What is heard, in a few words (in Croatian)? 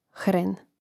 "hren", hrvatski; mreznik